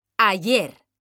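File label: "ayer", Spanish, female; mekanta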